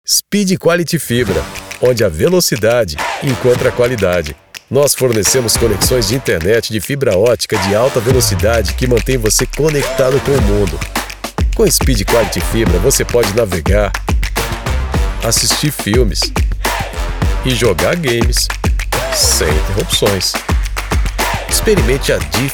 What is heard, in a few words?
portuguese brazilian promos